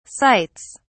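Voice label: "sights", British English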